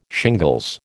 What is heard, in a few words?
"shingles", American